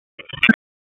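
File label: Police Southwest District Dispatch, p25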